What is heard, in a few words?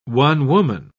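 "one-woman", English, British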